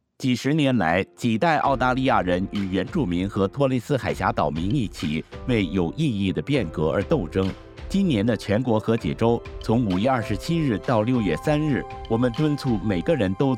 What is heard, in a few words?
Mandarin; National Reconciliation Week